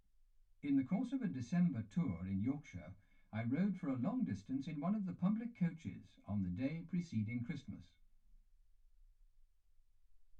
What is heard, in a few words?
male speech english